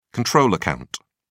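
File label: "control account", British English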